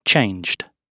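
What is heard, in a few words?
"changed", British English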